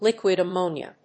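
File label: "liquid ammonia", English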